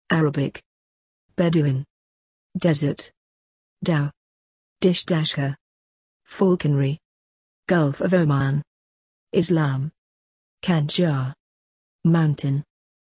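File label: British; oman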